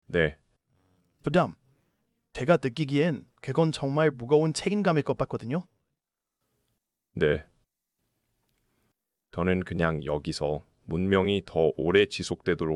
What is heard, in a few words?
korean; Subformer